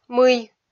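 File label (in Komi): "мый", Komi, female; kpv audio dict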